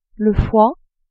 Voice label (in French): "foie", french